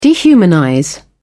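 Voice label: "dehumanize", British English, dictionary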